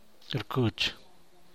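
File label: "rded", San Mateo Macuilxochitl Zapotec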